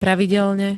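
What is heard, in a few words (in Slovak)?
"pravidelne", Slovak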